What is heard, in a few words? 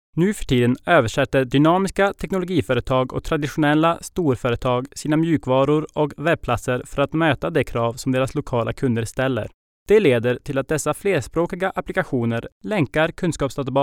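Swedish, voice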